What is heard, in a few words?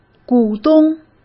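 "股东", Mandarin Chinese; chinese-flashcards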